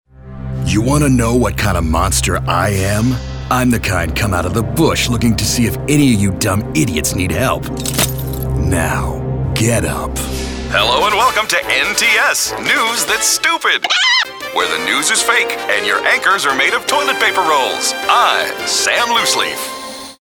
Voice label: Animation

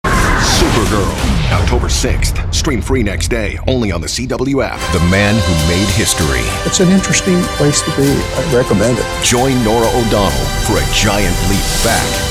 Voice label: Promo

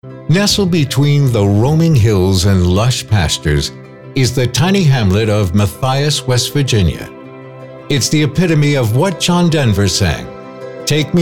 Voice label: Narration

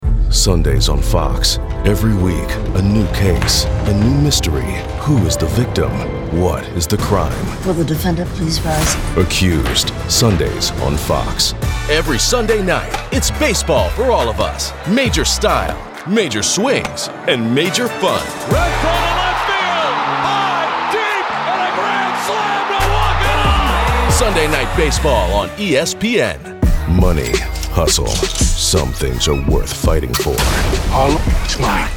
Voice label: Promo

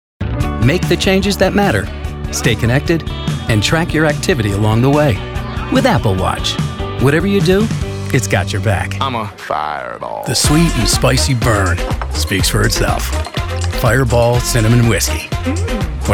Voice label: Commercial

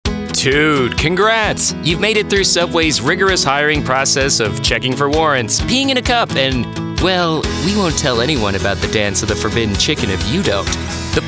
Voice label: eLearning

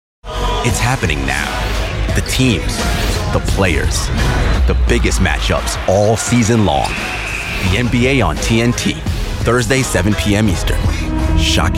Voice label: Promo

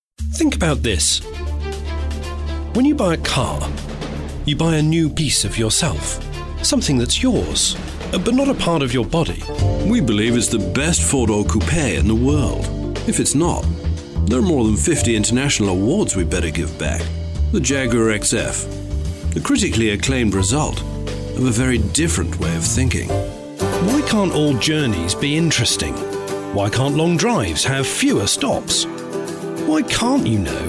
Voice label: Automotive British

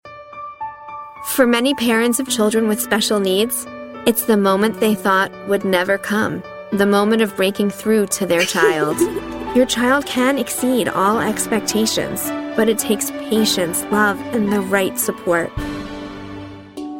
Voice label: narration

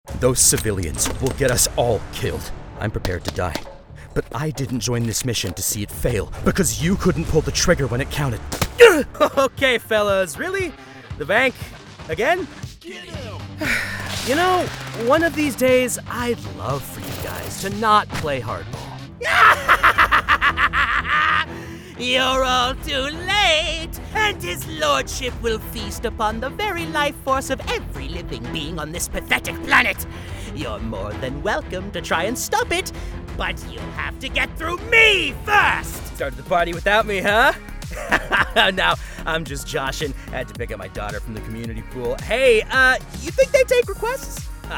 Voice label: Animation

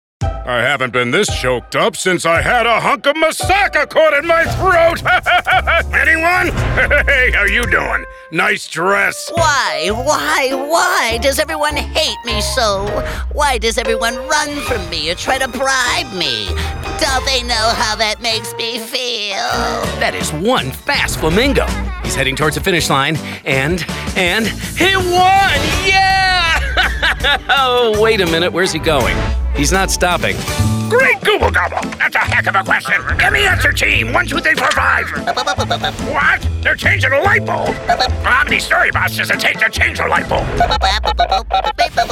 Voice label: Animation

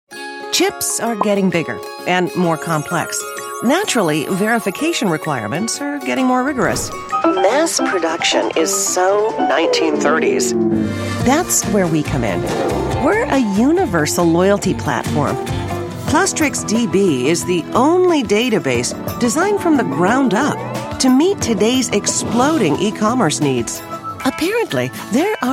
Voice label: Explainer

